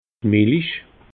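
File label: "milich", Alsatian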